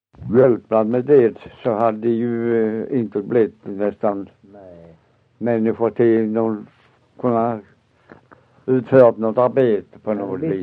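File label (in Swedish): Össjö Skåne, dialekter; Folkminnen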